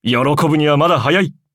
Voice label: levelup ja; Cookie Run

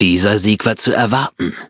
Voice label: victory, de; cookie run

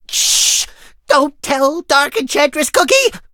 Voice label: defeat, en; cookierun

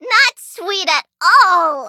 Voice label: defeat, en; cookierun

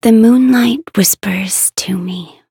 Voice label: levelup en

